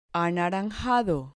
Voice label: "anaranjado", Spanish

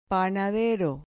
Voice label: "panadero", Spanish

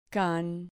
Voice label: "can", Spanish

